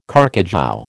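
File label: "carcajou", American English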